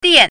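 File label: "dian4", Chinese pinyin, fourth tone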